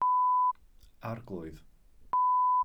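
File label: "arglwydd", Welsh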